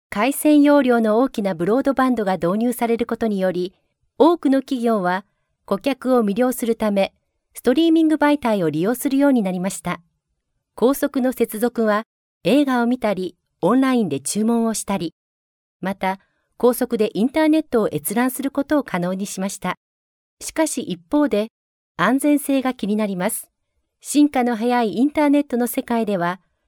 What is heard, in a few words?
female japanese voice over narrative